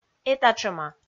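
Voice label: "этатшӧма", Komi, female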